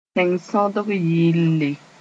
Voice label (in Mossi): "tẽng-sondg yɩɩlle", Moore; national anthem